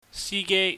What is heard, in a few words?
"sigei", Cantonese